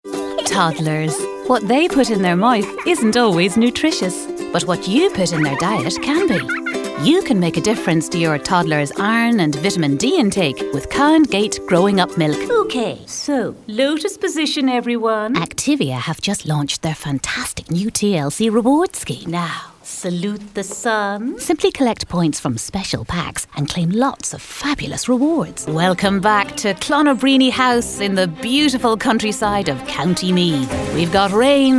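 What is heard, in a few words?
commercials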